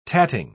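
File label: "tatting", British English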